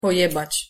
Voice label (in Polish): wymowa po polski "pojebac"